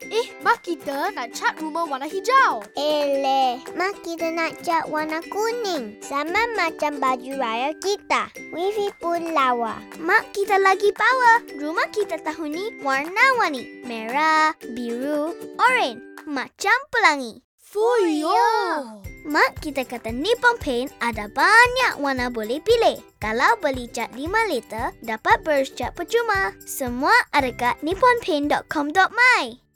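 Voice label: Malay; Nippon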